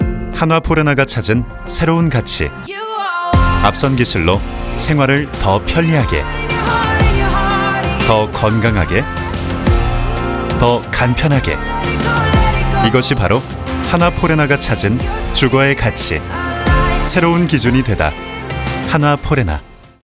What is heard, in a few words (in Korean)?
광고; 포레나